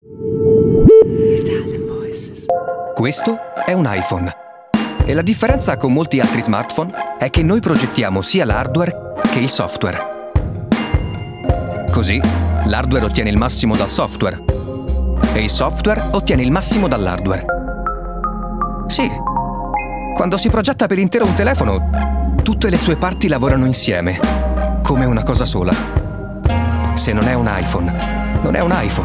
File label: ITALIAN VOICES Recent Commercials, male voices